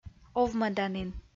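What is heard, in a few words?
"овмӧданін", Komi, female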